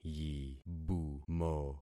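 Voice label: "yibumo", concatenated syllables; Affixing experiment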